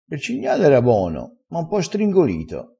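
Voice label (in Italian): "stringolito", dialetto crespinese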